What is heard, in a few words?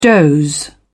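"doze", British English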